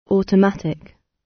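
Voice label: "automatic" British English; Technology; Công nghệ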